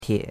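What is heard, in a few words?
"tie3", Mandarin Chinese; Cours de Chinois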